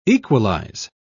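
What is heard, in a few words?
"equalise", British English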